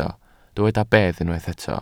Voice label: "bedd", Welsh